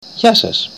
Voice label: "hello", Greek